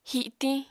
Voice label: "hitiñ", apatani